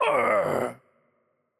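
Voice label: Hurt Heavy, Korean; ko KR; bloom minigame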